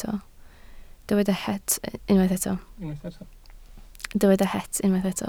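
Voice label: "het" Welsh, spoken word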